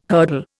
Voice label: "hurdle", Indian